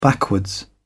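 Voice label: "backwards", British English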